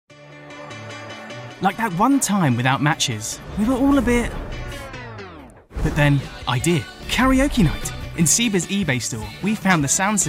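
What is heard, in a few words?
Commercial; Ebay; LOFT VOICES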